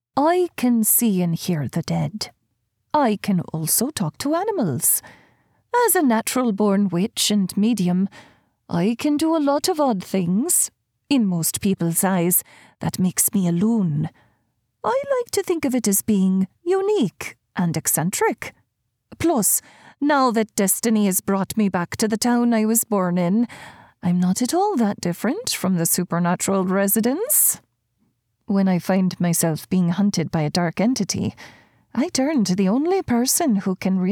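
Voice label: Irish accent, Female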